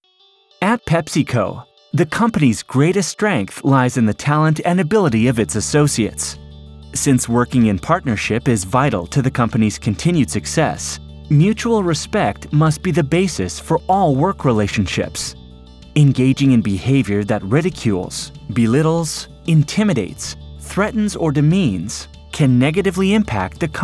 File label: narration